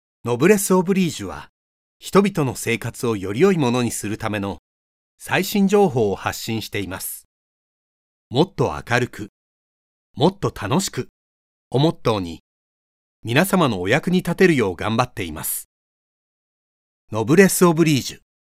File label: japanese e-learning